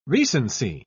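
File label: "recency", British English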